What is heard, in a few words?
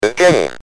"Again", Speak & Spell UK